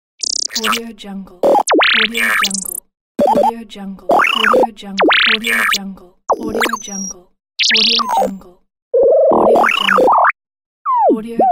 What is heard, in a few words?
droid voice